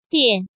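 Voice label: "Diàn", Chinese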